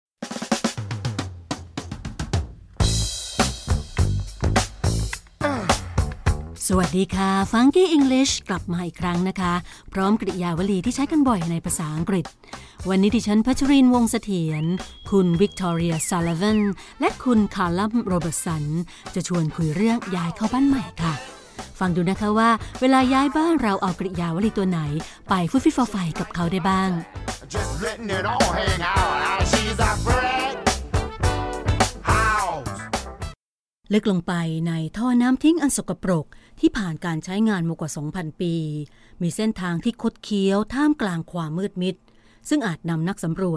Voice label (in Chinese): 外籍 泰语女声